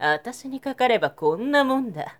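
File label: woman, "atasinikakareba"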